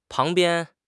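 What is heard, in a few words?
"pang2 bian1", Chinese; HSK; HSK2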